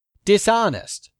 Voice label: "dishonest", English; 3000 words

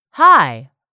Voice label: "HIGH", english; 3000 words

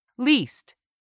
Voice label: "least", English; 3000 words

